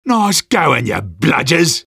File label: jeers; sniper; garrysmod; tf2-content-gmodcontent